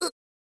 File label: "u", girl